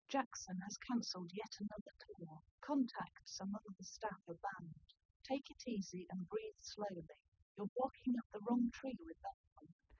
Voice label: female liverec 130ms 5cm sim; SiSEC08; SiSEC underdetermined